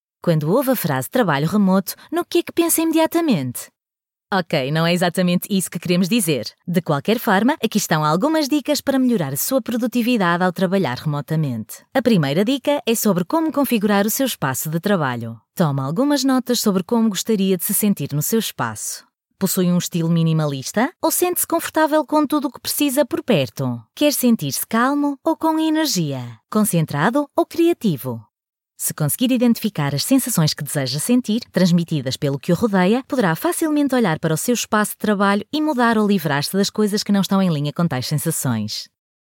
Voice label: portuguese female elearning